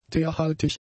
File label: "teerhaltig", German; adjectives